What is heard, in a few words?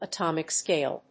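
"atomic scale", English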